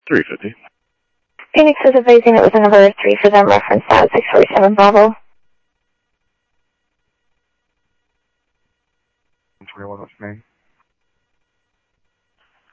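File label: Sheriff West County, scanner audio trunk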